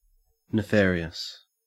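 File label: "nefarious", English, Australian accent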